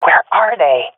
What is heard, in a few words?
lost sight enemy, swat fem; vo; Counter Strike Model Pack; CS:GO